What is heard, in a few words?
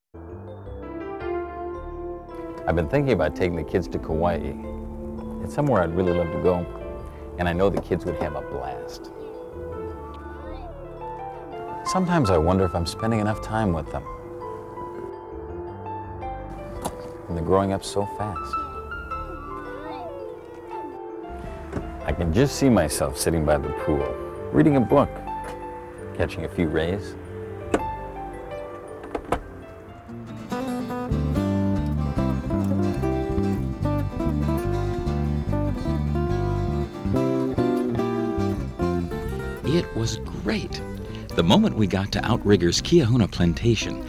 Promo Video Voiceover; Outrigger Kiahuna Plantation